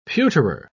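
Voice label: "pewterer", English, British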